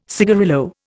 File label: "cigarillo", Indian